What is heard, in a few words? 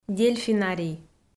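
"дельфинарий", Komi, female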